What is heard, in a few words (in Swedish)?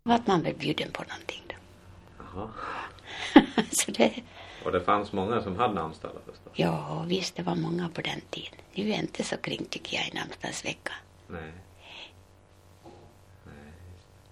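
Falun Dalarna, Svenska sagor, dialekter; Folkminnen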